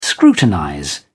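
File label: "scrutinize", English, British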